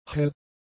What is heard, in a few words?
"hell", German; adjectives